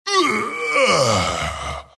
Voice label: wraith death; dota; skeleton king